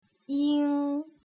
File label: "ing", putonghua; 鼻韻母